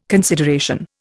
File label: "consideration", Indian